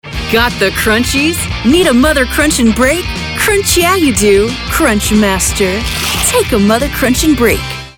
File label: Commercial; CrunchMaster